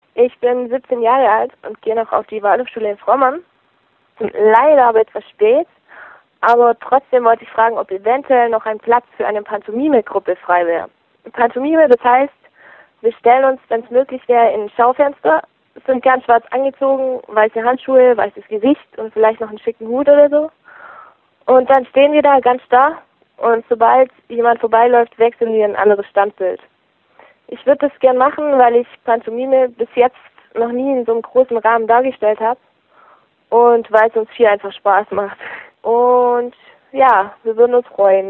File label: Voicemail